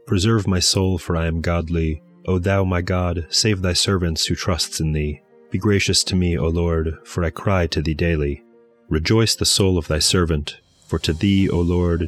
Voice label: poetry and prayer psalm english; Meir Soloveichik